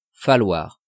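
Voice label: "falloir", French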